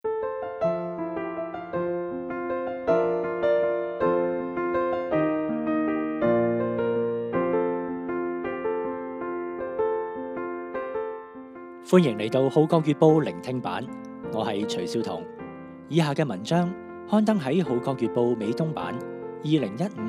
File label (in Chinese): Cantonese